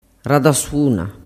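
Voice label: "radasuna", Sicilian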